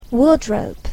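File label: "wardrobe", English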